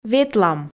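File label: "ветлам", Komi, female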